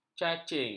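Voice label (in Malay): "cacing", Malay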